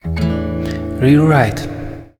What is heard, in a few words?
audio channel rear right